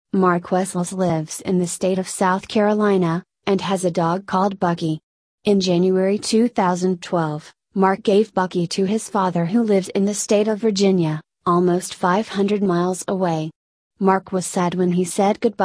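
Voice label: American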